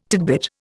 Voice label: "tidbit", Indian